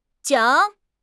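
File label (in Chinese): "桨", Chinese; HSK6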